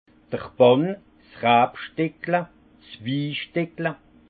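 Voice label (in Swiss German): "bann", alsace Haut-Rhin; vin; parcelle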